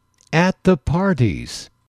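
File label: "at the parties", English, male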